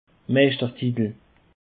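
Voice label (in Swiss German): "meischtertitel", Bas Rhin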